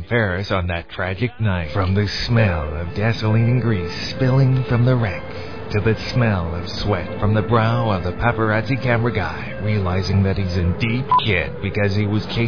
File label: Commercials sudden; Sudden Impact; Freekin Weird Audio